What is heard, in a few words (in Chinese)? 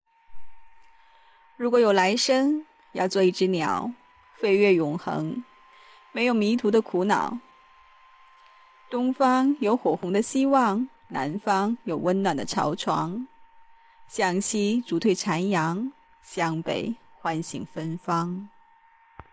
情感类